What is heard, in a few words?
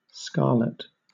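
"scarlet", British English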